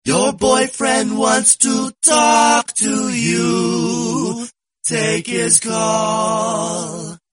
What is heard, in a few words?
boyfriend wants to talk call notification tone ringtone